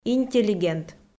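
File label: "интеллигент", Komi, female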